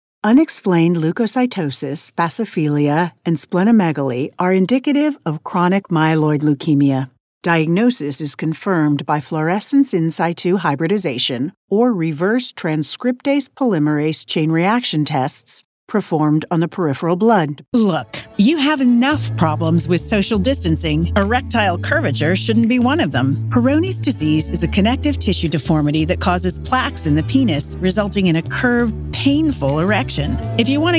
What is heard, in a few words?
Medical Narration